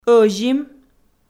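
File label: "ӧзим", Komi-Zyrian, female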